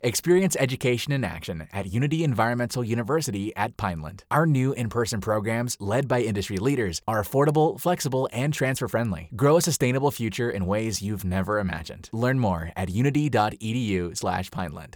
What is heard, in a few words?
Radio SPot; Unity